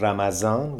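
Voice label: "Ramadan", pronunciations; Fourth Way; Beelzebub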